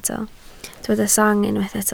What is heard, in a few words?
"sang", Welsh